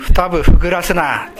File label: "futabu", minamiyamagata